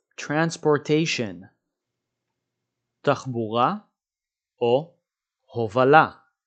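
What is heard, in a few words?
"transportation" English, "תחבורה הובלה" Hebrew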